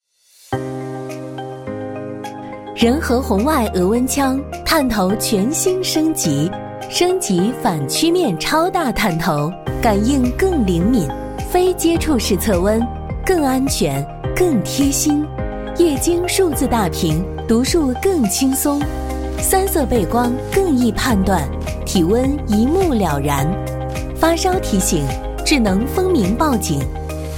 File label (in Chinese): 女 产品解说; 红外额温枪